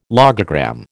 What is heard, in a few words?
"logogram", American